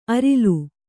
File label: "ಅರಿಲು", Kannada